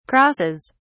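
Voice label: "crosses", British English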